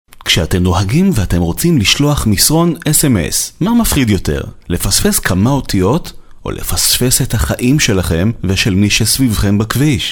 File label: hebrew documentaries